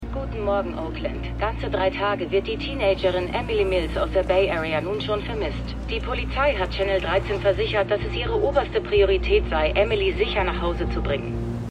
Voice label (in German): Nachrichtensprecherin; Truth Be Told